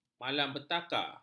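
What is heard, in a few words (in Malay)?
"malapetaka", Malay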